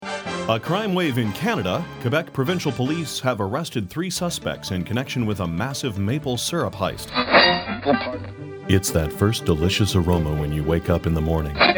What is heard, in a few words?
voice actor